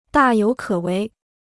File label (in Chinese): "大有可为", Chinese